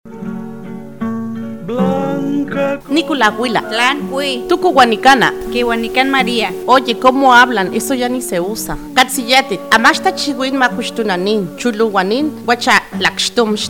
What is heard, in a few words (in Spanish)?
Lengua Totonaco; FI Papantla; Proteccion, preservacion de las lenguas indigenas